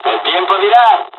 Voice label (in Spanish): dialog, dialogs spanish; midway; War Thunder